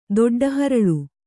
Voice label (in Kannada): "ದೊಡ್ಡಹರಳು", Kannada